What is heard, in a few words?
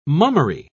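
"mummery", British English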